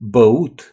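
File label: "to drink", Romanian; gcompris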